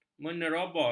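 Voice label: "menerobos", Malay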